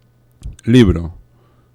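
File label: "libreo", Spanish; laboratori fonetica; paraules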